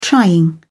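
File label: "trying", British English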